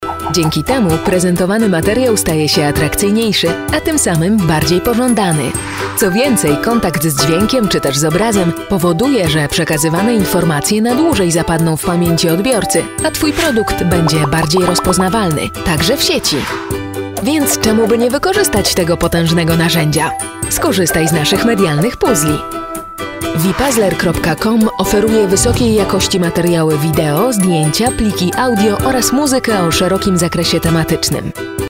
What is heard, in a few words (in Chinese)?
波兰语女, 外语, 时尚; 小语种